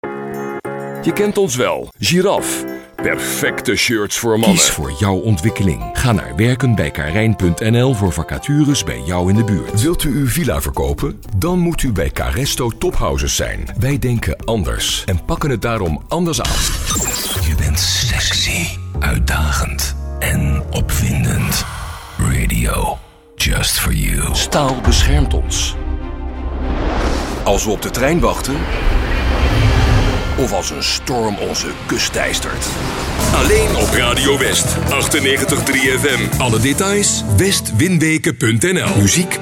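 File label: Dutch, male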